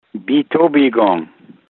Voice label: biitoobiigong pronunciation; Biigtigong